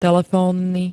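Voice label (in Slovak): "telefónny", Slovak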